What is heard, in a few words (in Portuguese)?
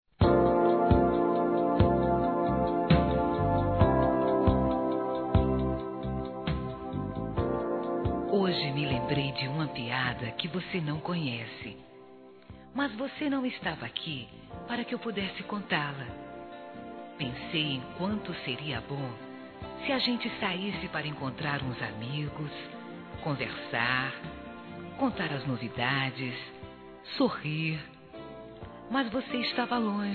Saudades Neutra Feminino; Telemensagens